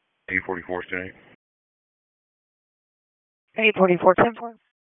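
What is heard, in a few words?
Sheriff's Office Dispatch; wake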